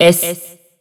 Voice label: System Voice Character Select; BBCF; Hibiki Kohaku; Es